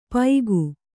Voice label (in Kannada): "ಪೈಗು", Kannada